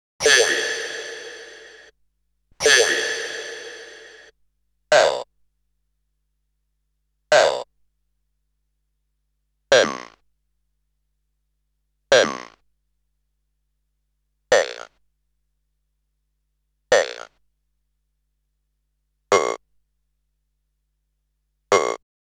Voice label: Vocoder Speech; Bizarre Planet

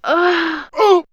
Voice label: Men Women Animal Magic, vox; vox mix; X-Static Goldmine

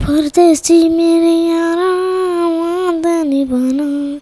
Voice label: CHILD VX, Indian Traditions; USB Soundscan vol.45; AKAI

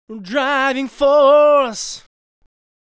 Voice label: "Driving Force", vocal phrases; Ultimate Vocals

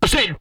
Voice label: I said, Vocal, R&B Dance, hip-hop; TB HIP-HOP

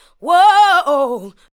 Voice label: ADLIBS 90; Ueberschall; Jam Box

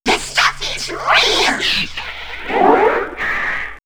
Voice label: Scratched, house&rapvocals; X-Static Goldmine

